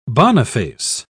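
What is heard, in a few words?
"boniface", British English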